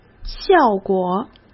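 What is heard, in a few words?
"效果", Mandarin Chinese; hsk; chinese-flashcards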